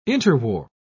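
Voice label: "interwar", British English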